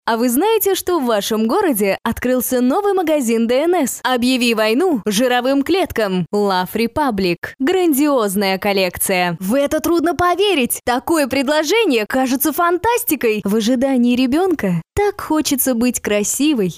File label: rus; voices female